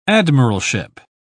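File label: "admiralship", British English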